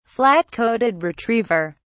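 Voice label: "flat-coated retriever", British English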